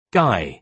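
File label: "guy", British English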